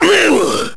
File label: pain; svencoop; ub megaman